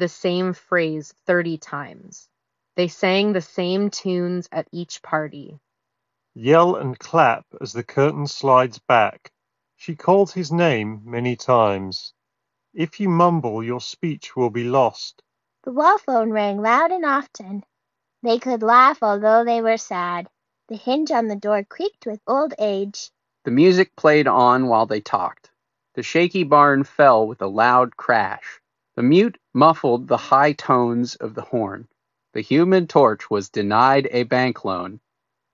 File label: Ideal microphone sample; Jabra Elite 8 Active